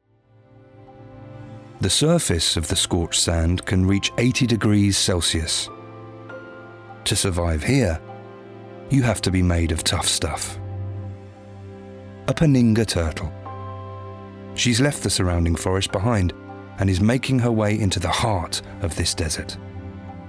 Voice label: Wildlife Documentary - Cool, Natural, Accessible